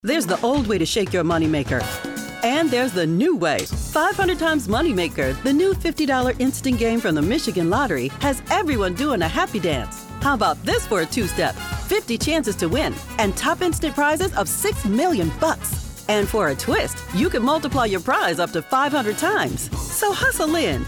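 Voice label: Commercial, Engaging, Authentic, Warm Female; MI lottery Money maker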